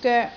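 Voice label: "goek3", Cantonese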